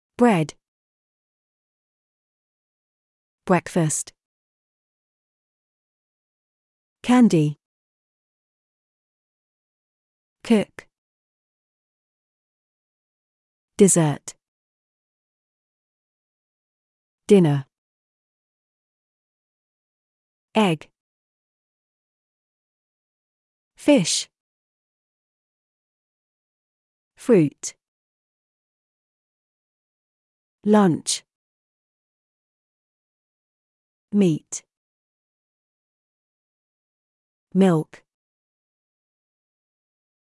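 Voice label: British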